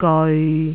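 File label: "geoi6", Jyutping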